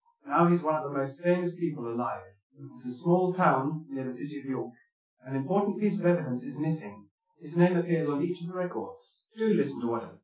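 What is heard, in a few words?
male speech; SiSEC10; underdetermined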